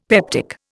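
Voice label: "peptic", Indian English; Dictionary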